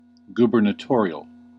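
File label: "gubernatorial" US English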